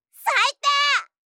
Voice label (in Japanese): "サイテー！", 相づち・反応系【ネガティブ】; ASMR